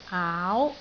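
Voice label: "aau2", Cantonese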